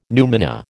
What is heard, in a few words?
"numina", American